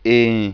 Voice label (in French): "ein", voyelles nasal